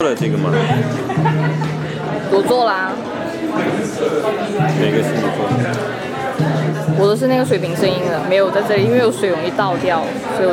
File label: conversing in chinese